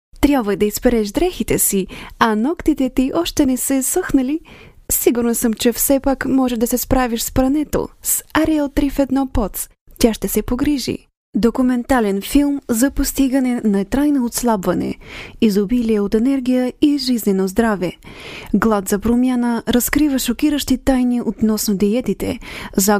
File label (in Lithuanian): (MOT) Bulgaru balsas, moteris